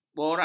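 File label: "boraks", Malay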